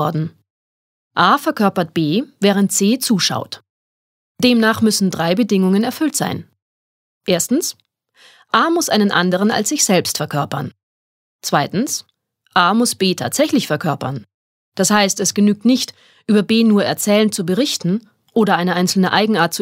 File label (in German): Schauspieler Neutral